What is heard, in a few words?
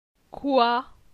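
"Coi", French; phonetique; la syllabe oi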